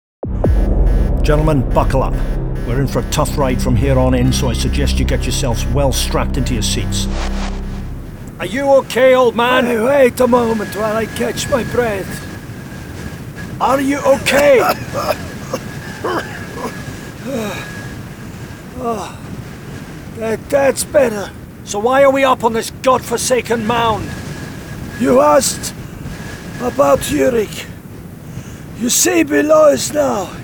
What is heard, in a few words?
Drama and Gaming